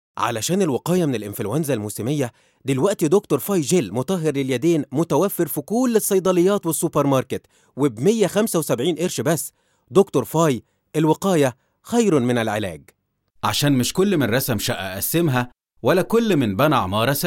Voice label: Arapca Erkek Misir Arapcasi, seslendirme; erkek sesler

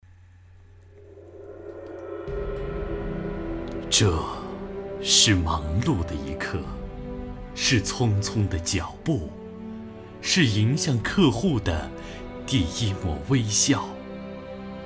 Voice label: Cince Erkek Mandarin Cin Simplified

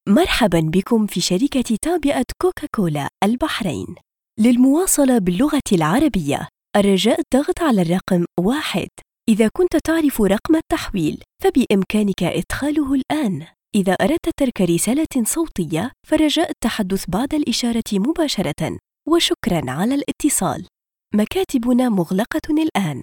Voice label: Arapca Kadin Klasik Arapca Tunuslu; kadin sesler; klasik arapca seslendirme

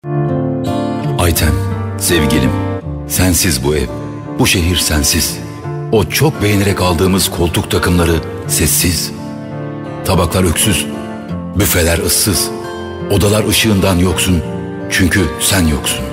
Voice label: turkce seslendirme, erkek sesler

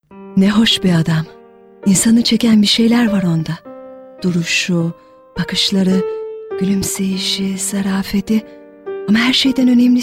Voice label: turkce seslendirme, kadin; kadin sesler

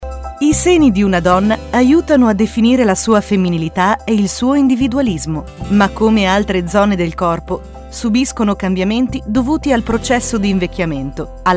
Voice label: Italyanca Kadin, seslendirme

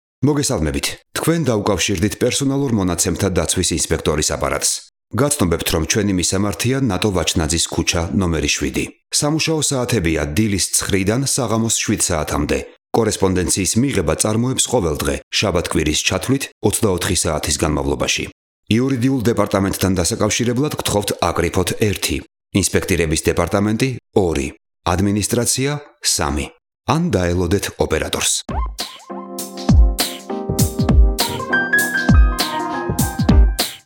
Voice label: Gurcuce Erkek; seslendirme

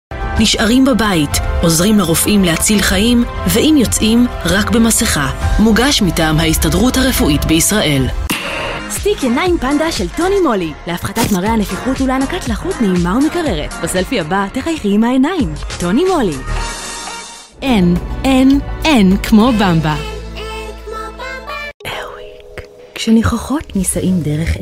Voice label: ibranice Kadin israil; seslendirme

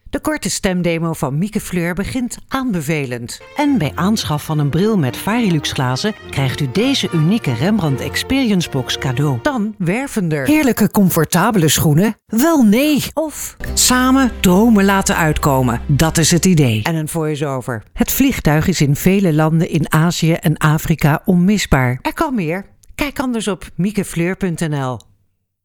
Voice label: Hollanda Kadin Felemenkce

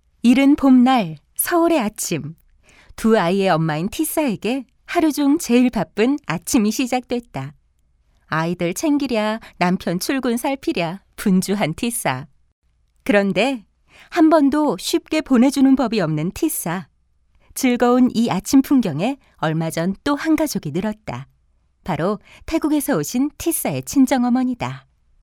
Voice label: Korece Kadin, seslendirme; kadin sesler

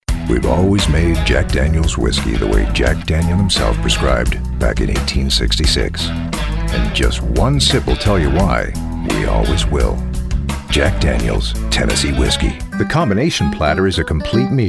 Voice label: Amerikan Ingilizcesi Erkek

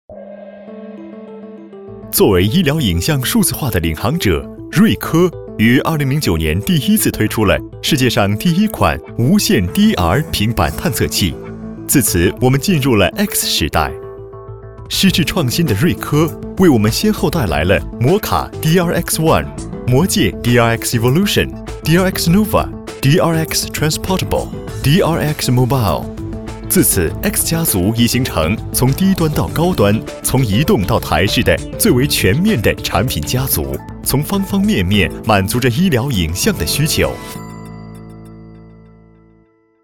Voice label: Cince Erkek Mandarin Cin Simplified; pekin seslendirme

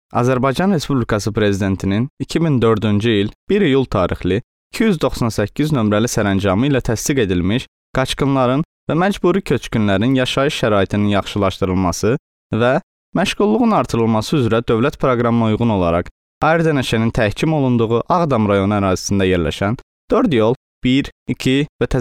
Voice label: Azerice Erkek; azerice seslendirme; erkek sesler

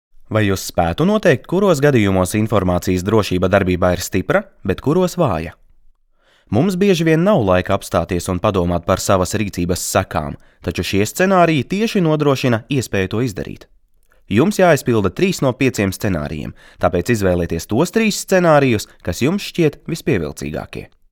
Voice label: Letonca Erkek, seslendirme; erkek sesler

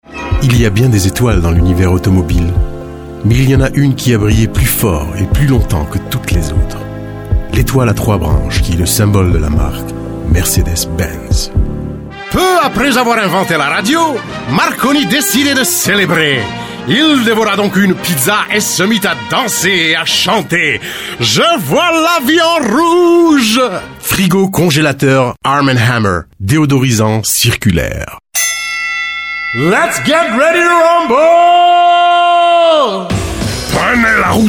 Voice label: Fransizca Erkek; seslendirme

